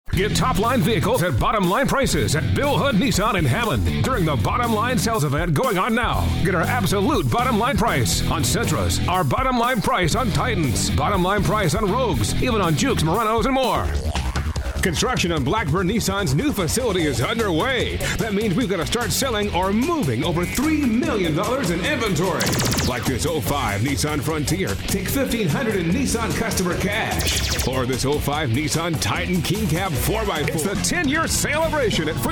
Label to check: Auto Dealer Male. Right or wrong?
Right